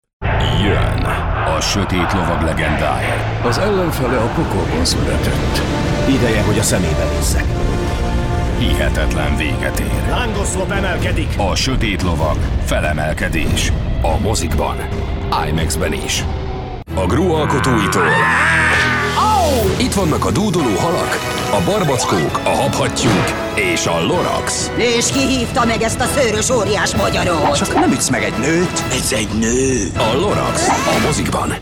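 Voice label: hu movietrailer, Hungarian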